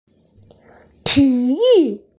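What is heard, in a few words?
"ti3yu4", Mandarin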